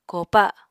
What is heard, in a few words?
"kopa", Apatani